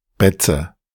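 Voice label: "Betze" German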